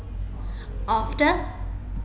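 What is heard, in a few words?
"after", British English, female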